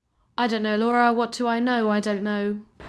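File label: Voice Acting Spanish Female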